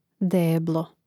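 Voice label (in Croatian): "deblo", Hrvatski; mreznik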